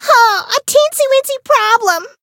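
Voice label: Voice encounter fear; soira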